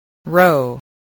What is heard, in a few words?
"row", English spelling